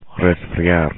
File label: "resfriar", Spanish; infinitive